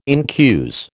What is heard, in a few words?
"incuse", American